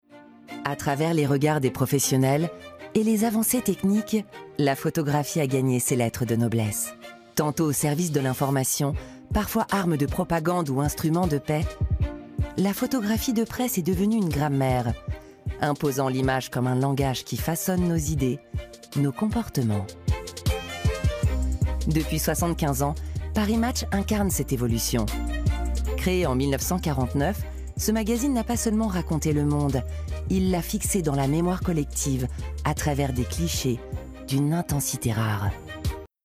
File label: Narration; Paris Match